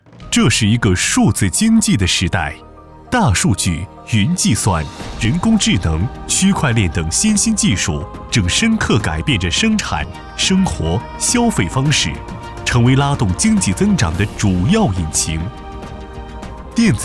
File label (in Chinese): 男 大气宣传 电子商务